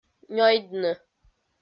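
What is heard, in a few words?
"нёйдны", Komi, female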